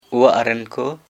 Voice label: family female